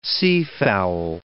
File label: "seafowl", English